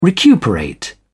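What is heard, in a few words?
"recuperate", British English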